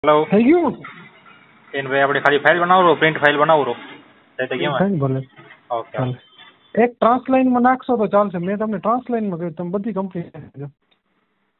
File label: Call recording